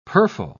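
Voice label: "purfle", British English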